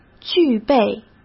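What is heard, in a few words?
"具备", Mandarin Chinese; chinese flashcards; hsk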